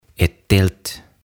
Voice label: "Ett Tält" "Teltta"

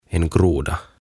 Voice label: "En groda" "Sammakko"

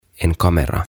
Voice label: "En Kamera" "Kamera"

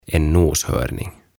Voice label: "En noshörning" "Sarvikuono"

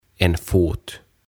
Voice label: "En Fot" "Jalka"

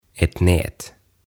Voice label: "Ett Nät" "Verkko"

